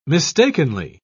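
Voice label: "mistakenly", British English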